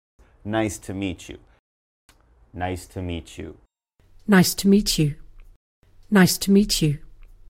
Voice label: Nice to meet you American Pronunciation